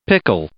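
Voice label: "pickle", English; noun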